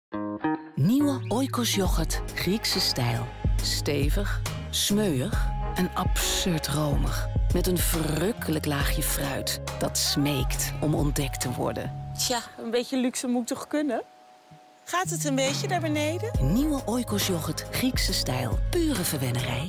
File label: voice-over; Danone Oikos